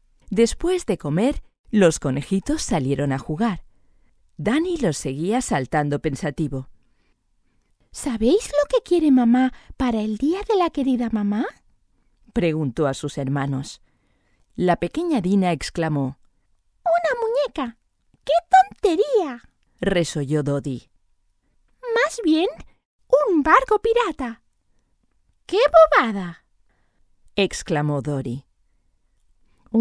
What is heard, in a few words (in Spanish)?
es audiolibro